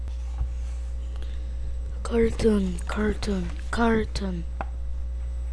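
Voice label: "cartoon", spoken word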